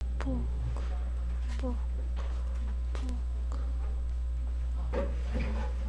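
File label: "book", spoken word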